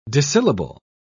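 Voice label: "disyllable", British English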